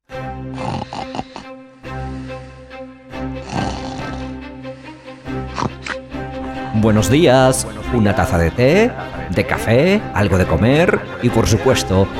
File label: jingle good morning; Delta FM